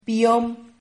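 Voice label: "biom", Spanish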